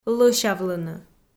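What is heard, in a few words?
"лӧсявлыны", Komi, female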